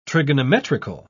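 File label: "trigonometrical", British English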